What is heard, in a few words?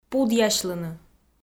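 "пудъясьлыны", Komi, female; kpv audio dict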